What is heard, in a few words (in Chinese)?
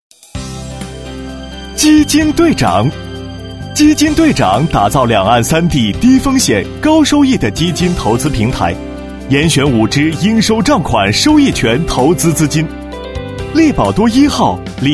男, 专题, 年轻解说; 基金队长